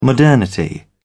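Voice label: "modernity", British English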